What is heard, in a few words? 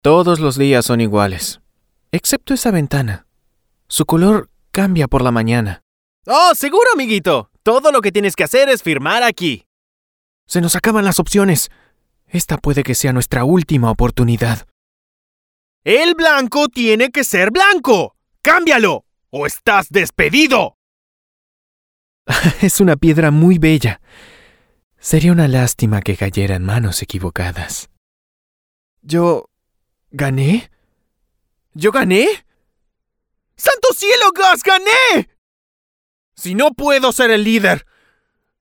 Latam